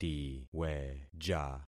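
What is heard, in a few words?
"tiweja", concatenated syllables; Affixing experiment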